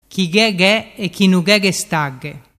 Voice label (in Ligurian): "chighegheechinugheghestagghe", dialettu